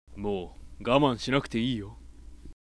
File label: sample sweet low, voice